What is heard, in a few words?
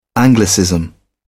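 "anglicism", British English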